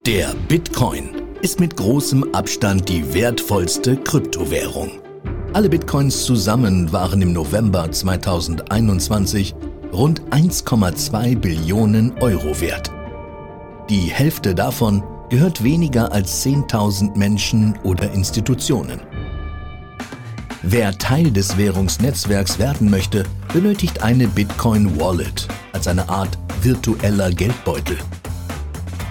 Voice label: Corporate Narrator

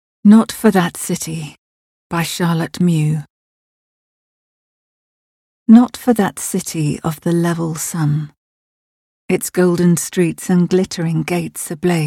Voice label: Narration

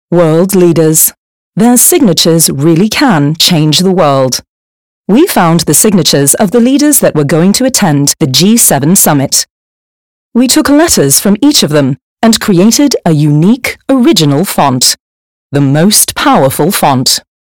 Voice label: News

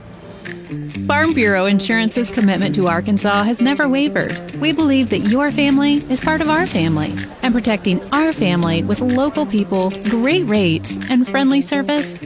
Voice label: English Voice Over Sample

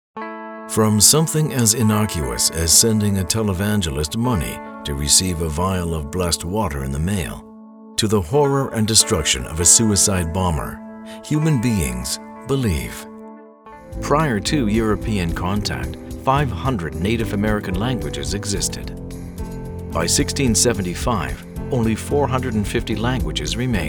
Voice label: Documentary narration

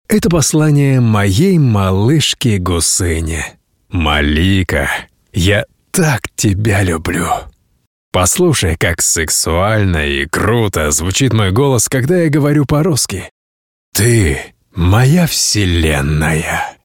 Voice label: Russian Male Hot Sex

